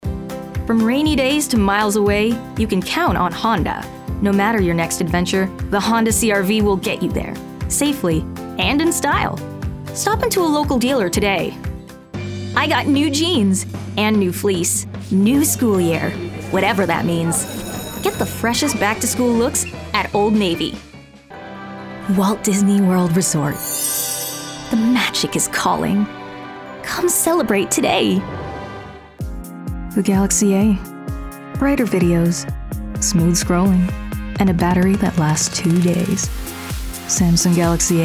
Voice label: Commercial